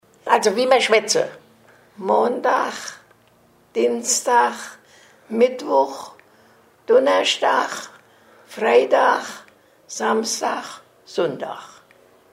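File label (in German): wochentage, O-Ton Hunsrück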